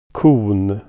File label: "cone", swedish